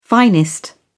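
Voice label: "finest", British English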